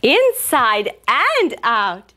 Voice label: female; Voice; inside and out